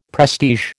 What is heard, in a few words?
"prestige", American